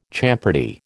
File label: "champerty", American